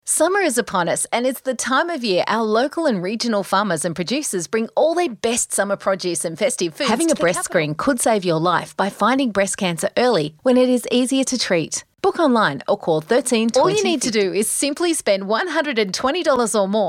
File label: Soft Sell